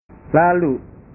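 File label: "lalu", Indonesian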